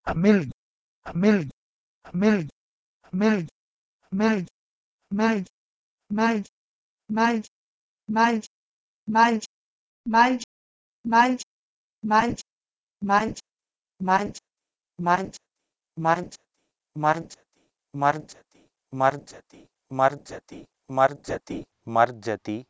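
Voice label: Proto-Indo-European h2melg to Sanskrit marjati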